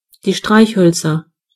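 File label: match, German voices words; gcompris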